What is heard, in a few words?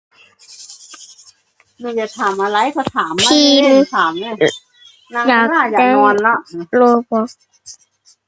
voice message